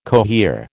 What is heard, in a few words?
"cohere", American